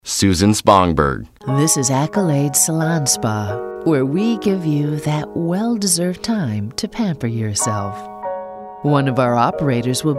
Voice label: IVR